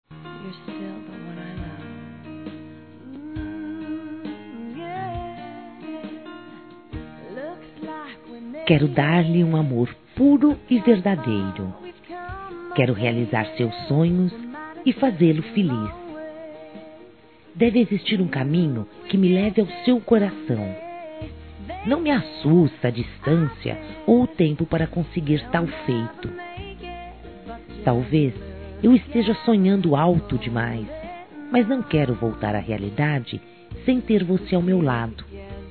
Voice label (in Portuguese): Palavras Que Sonhei Femin; Telemensagens; Conquistas Cantadas paqueras; Telemensagens 2017